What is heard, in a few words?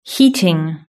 "heating", British English